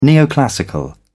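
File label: "neoclassical", British English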